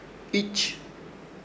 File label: "Ich", Ishir Chamacoco; este o es